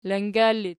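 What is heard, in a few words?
"langar" ergative, Archi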